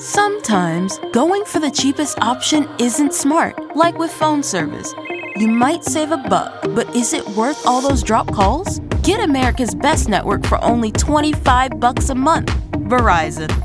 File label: phone, commercial